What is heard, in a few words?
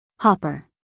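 "hopper", American English, female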